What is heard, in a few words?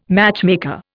"matchmaker", Indian